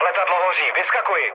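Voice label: on fire, Czech, mood low; speech; War Thunder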